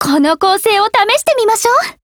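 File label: Japanese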